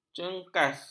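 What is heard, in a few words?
"cenangkas", Malay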